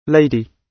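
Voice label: "lady", British English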